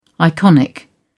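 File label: "iconic", British English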